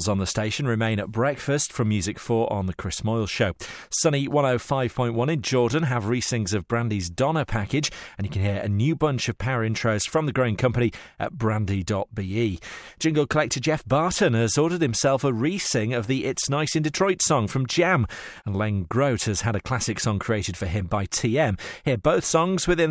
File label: radio news